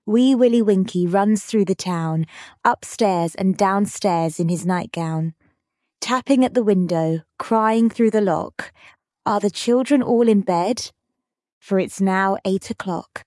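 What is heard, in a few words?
Wee Willie Winkie female british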